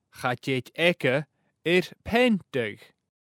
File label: cha tèid aige air peantadh, Gaelic; he will not manage painting; Painting and papering; A’ peantadh agus a’ pàipearachadh